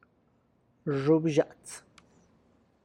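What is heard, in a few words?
Tlacochahuaya Zapotec; yellow corn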